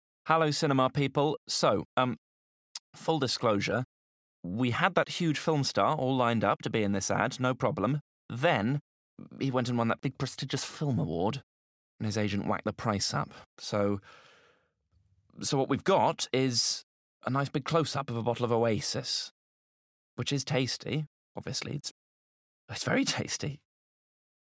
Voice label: Commercial; Oasis